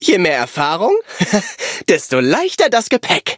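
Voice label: level up, German; cookie run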